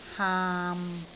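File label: "haam6", Cantonese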